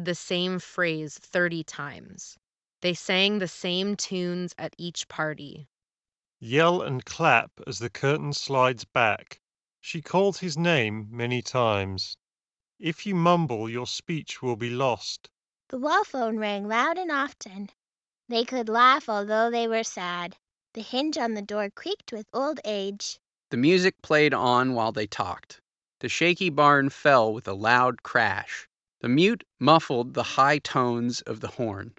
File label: HyperX Cloud III Wireless Ideal microphone sample